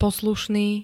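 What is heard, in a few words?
"poslušný", Slovak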